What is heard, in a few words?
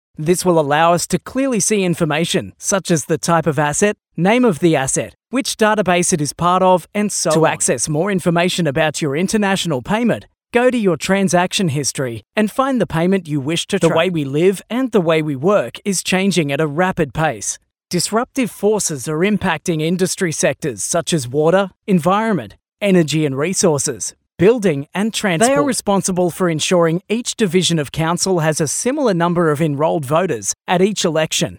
Corporate